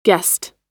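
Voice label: "guessed", American English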